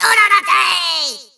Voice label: Troll Taunt; Skylanders Cloud Patrol; Arcade, iOS, Mobile

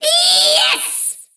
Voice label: Troll VO Success

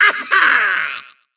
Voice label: Troll Taunt; Skylanders Cloud Patrol; Arcade, iOS, Mobile

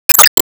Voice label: Emo Call Name

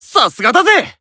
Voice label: Emo Praise

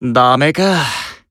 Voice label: Emo Damn

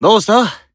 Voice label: Emo Reply

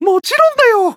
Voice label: Emo Reply; Disney Star Smash; Android, iOS, Mobile

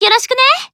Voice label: Emo Greet

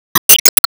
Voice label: Emo Glad

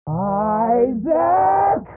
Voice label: Mom Vox Filtered; Isaac

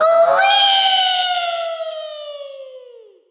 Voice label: Troll VO Fall Quip; Skylanders Cloud Patrol; Arcade, iOS, Mobile

